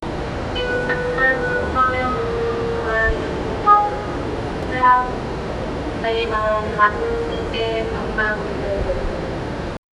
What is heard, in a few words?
style calm professional, airport